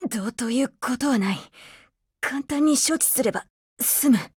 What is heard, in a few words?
BREAK JP; APC556